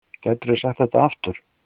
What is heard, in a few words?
say again, icelandic